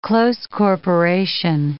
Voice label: "close corporation", English

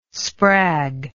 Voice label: "sprag", english

